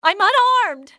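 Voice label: unarmed, english female; XBOX; civ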